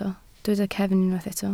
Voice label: "cefn", Welsh